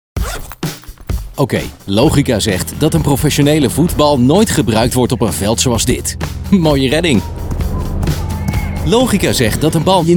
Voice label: Male 25 35 years Medium, dutch netherlands

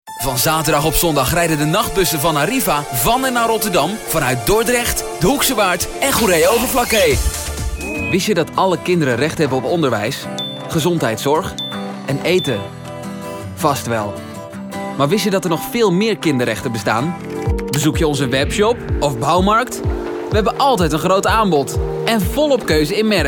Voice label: Male 20 30 years High, dutch netherlands

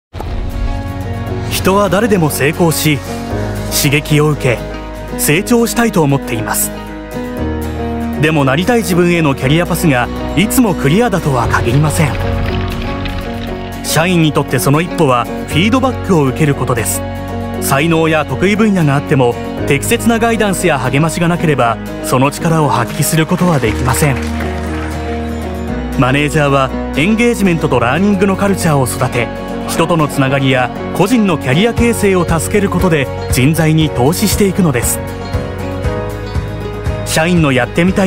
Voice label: Male 20 30 years Medium, japanese japan

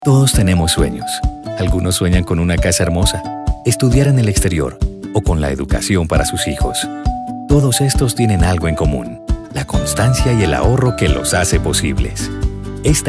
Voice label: Male 30 40 years Medium, spanish south america

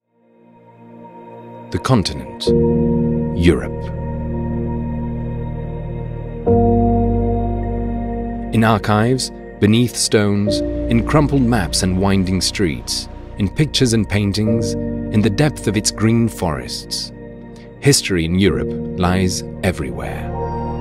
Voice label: Male 30 40 years Medium, english international

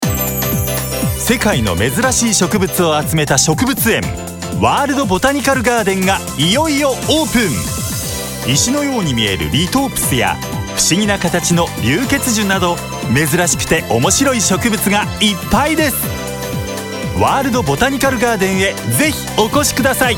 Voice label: Male 35 45 years Medium, japanese japan

